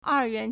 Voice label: "er4yuan2", Chinese

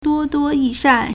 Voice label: "duo1duo1yi4shan4", Chinese

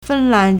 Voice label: "fen1lan2", Chinese

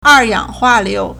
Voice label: "er4yang3hua4liu2", Chinese

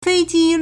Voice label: "fei1ji1", Chinese

